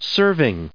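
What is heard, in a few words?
"serving", English, us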